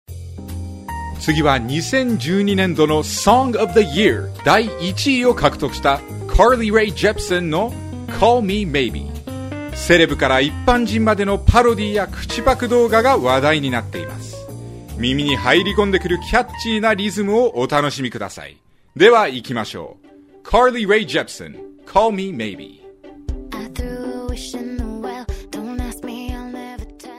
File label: japanese; USA